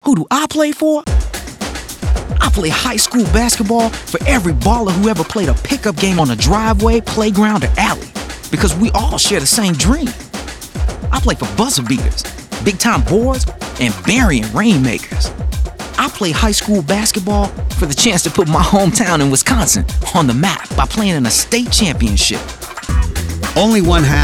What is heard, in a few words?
audio spot